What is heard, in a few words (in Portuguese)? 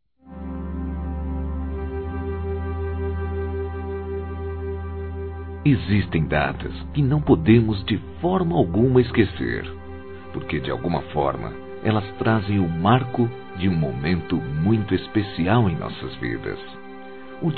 Romantica Aniversário Casamento Masculino, Telemensagens